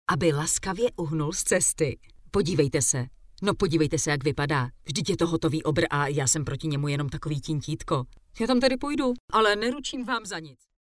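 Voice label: Czech, female, e-learning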